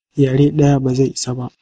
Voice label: one language hausa